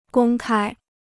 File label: "公开", Chinese